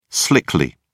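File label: "slickly", British English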